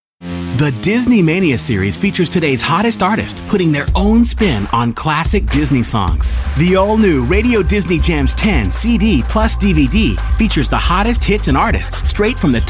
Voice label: Friendly

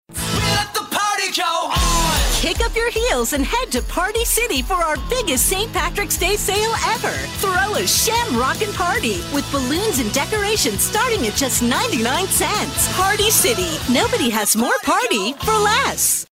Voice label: Hard Sell

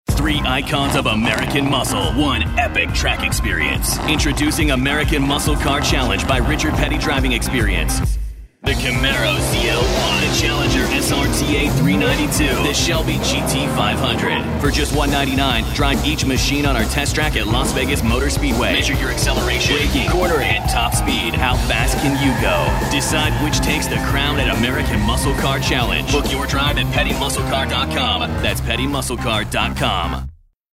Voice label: Hard Sell

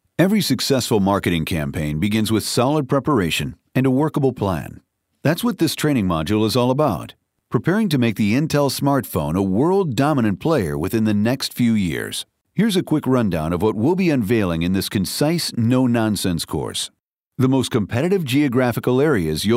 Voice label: eLearning

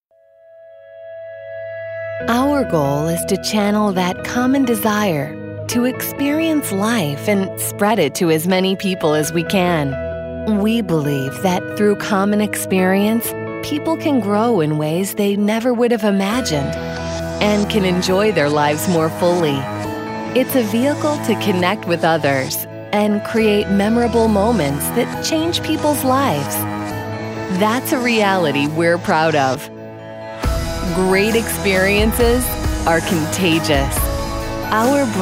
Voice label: Inspirational

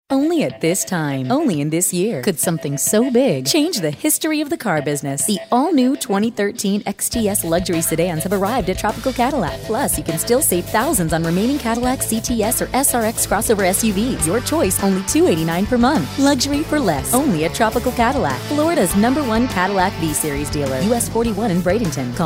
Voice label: hard sell

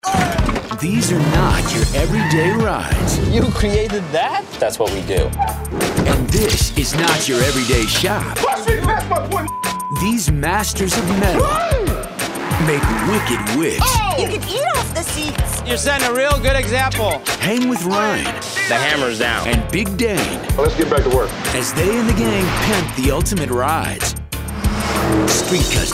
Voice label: TV Promo

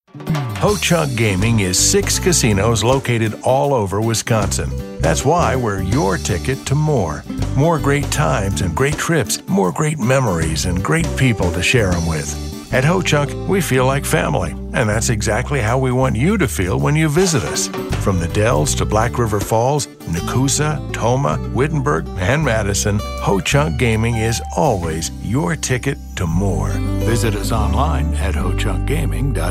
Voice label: Soft Sell

